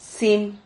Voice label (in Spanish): "sim", silabas